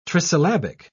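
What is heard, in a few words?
"trisyllabic", British English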